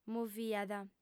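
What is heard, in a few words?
"moviat" Sardinian, female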